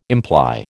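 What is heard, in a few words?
"imply", American English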